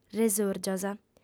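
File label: "resòrgias", female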